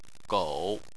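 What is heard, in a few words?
"gou" third tone, Chinese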